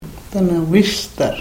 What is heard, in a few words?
karuk